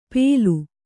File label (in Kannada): "ಪೇಲು", Kannada